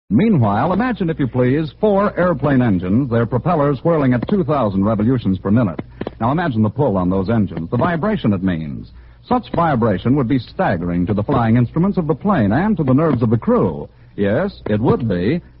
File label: vintage commercials rubber cement; goodyear